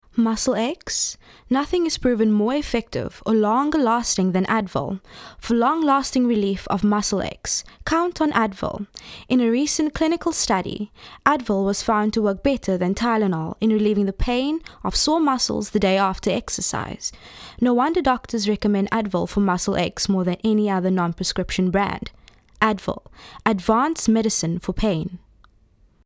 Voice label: soft sell